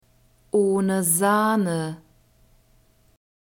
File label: "ohne Sahne", German; soft drinks new words